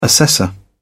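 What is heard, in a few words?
"assessor", British English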